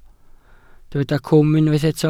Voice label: "cwm", Welsh